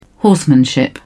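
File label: "horsemanship", British English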